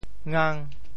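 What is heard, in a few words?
"ngang5", Teochew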